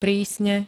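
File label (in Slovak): "prísne", Slovak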